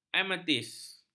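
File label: "ametis", Malay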